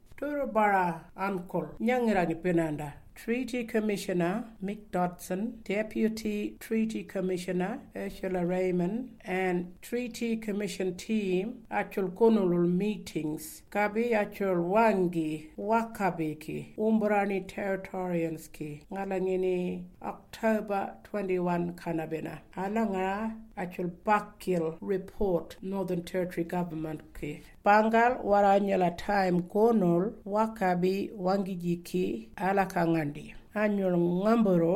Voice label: Warumungu